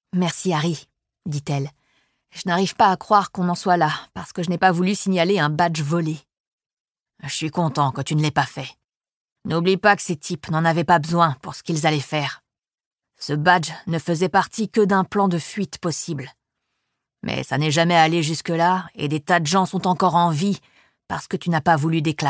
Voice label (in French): LIVRE AUDIO; Renée Ballard; Harry Bosch; « A qui sait attendre » de Michael Connelly; Série d'enquêtes; audiolib